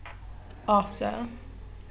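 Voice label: "after", British female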